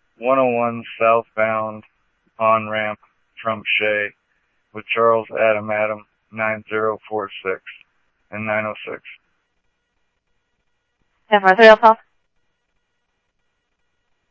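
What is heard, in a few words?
police department, scanner audio; ThompsonPeakHigh; SCTSDLE PD - PD2; ScottsdalePolice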